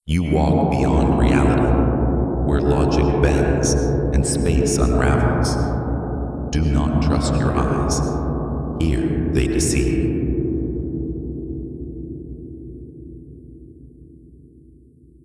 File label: narration; realmshift